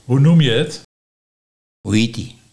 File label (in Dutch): Hoe noem je het - Hoe hiet ut, Nieuw lekkerlands dialect